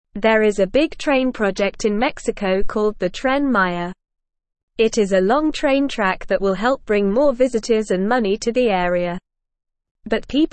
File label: English Lower Intermediate NORMAL Reading; Big Train in Mexico Good for Some Bad for Nature; English Newsroom